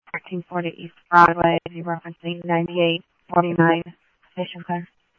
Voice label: Tempe Police, scanner audio; TEMPE PD A04

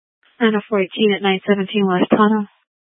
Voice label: Police Dispatch; Phoenix Police; Simulcast C- Chandler

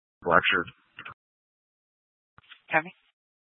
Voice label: Mesa PD Dispatch

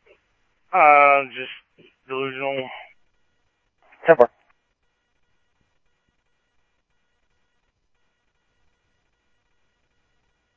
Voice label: Police, scanner audio

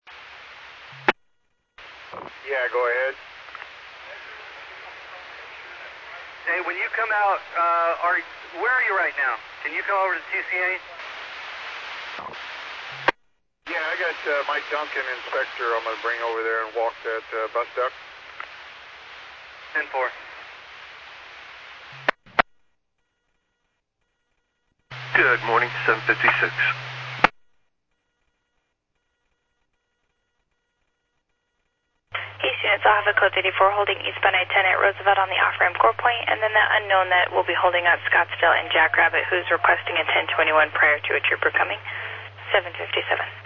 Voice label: Medevac, scanner; NativeAirAmb

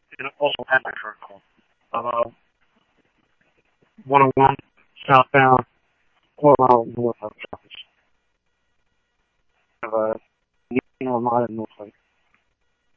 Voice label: Scottsdale Police, scanner audio

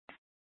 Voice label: Mutual Aid-Intersystem Interop B, scanner

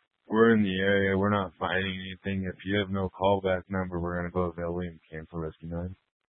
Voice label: Fire Dispatch; K8 - FDCOM 8 - South; C- Chandler

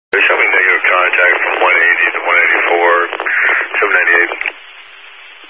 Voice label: Highway Patrol, Phoenix Metro East Area, scanner

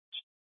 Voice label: Scottsdale PD Sth Dispatch, scanner

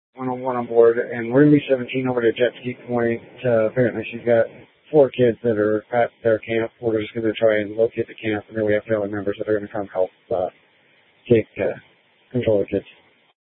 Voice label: Sheriff, scanner P25

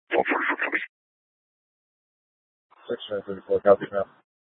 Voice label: Mesa PD Central Dispatch, scanner; TOPAZ Regional Wrlss Cooperative (TRWC); Mesa Simulcast

